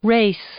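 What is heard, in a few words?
"race", English, female; noun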